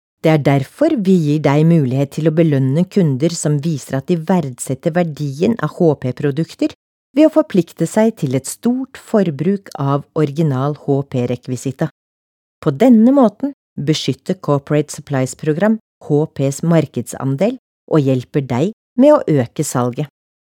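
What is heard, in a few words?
norwegian female narration; voice demos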